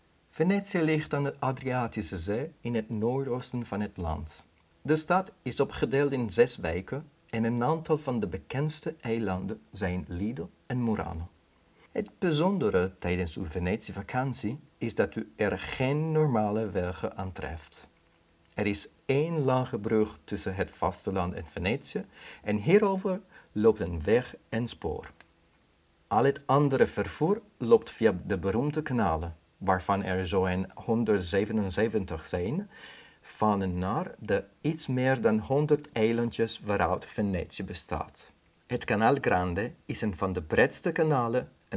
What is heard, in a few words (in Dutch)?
ned